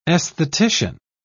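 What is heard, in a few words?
"esthetician", British English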